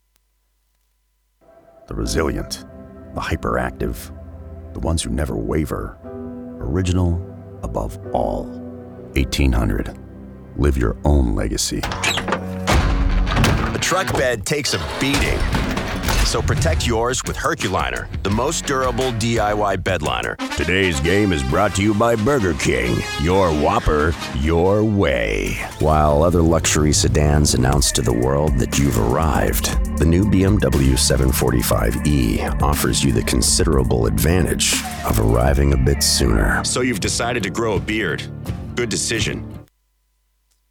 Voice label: american male

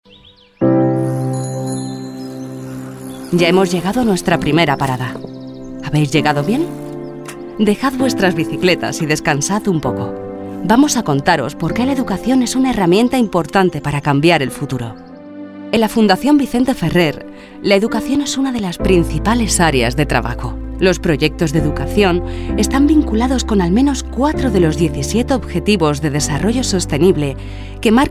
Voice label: spanish female

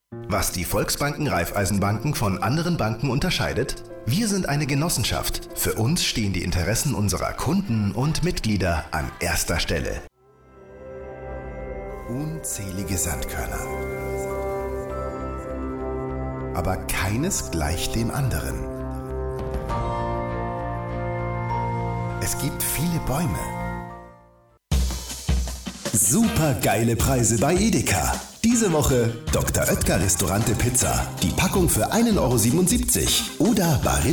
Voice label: german male

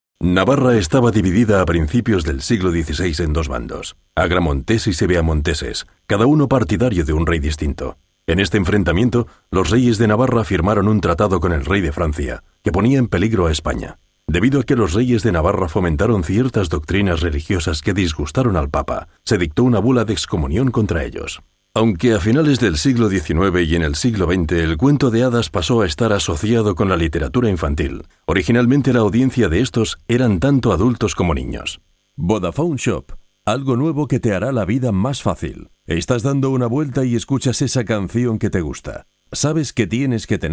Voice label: spanish male

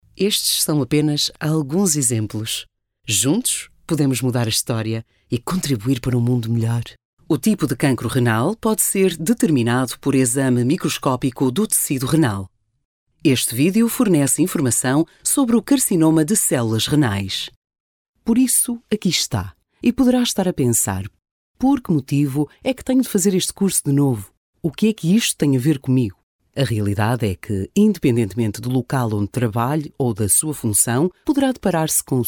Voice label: female, portuguese voices

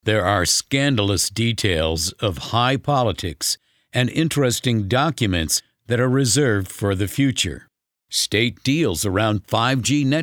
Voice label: american male

